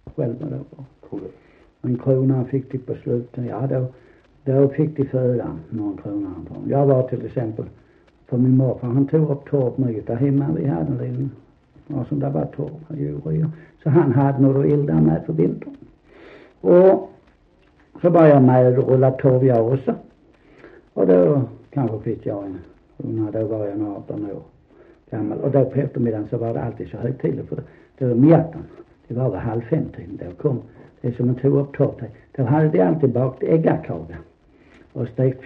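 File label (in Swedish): Sankt Olof Skåne, dialekter; Folkminnen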